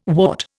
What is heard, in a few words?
"wart", Indian